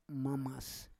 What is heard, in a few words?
"mamas", Roon